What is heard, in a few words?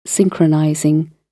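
"synchronizing", British English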